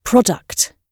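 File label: "product", British English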